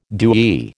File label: "dewy", American